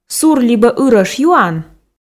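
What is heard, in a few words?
"сур либӧ ырӧш юан", Komi, female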